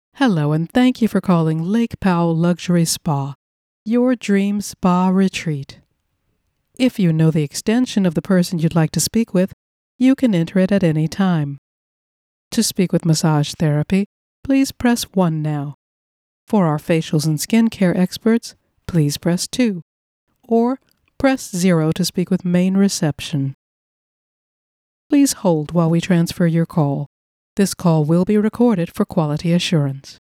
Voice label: IVR Voice Mail